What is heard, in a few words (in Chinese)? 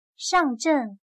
"上阵", chino